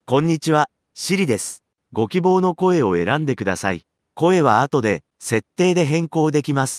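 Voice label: Japanese, Japan; iOS Sounds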